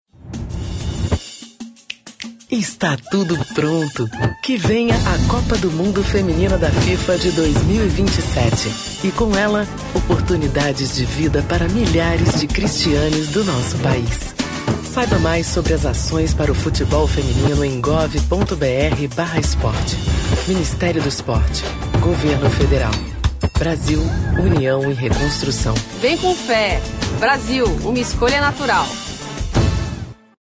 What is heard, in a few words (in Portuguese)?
spot; copa do mundo feminina